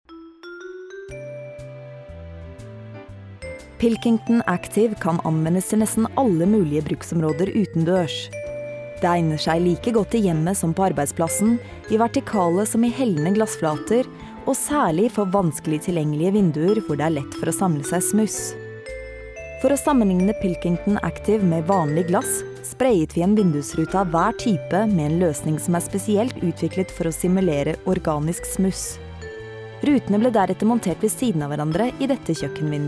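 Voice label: norwegian explainer videos, female; norwegian voice actors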